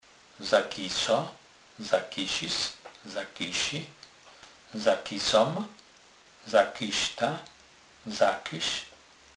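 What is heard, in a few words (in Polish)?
"zakysec", Kurpie dialect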